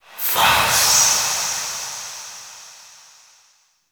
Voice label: Whisper; Kuva Lich; Requiem Mod